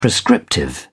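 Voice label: "prescriptive", British English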